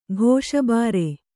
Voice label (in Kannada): "ಘೋಷಬಾರೆ", Kannada